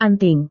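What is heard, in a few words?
"anting", Indonesian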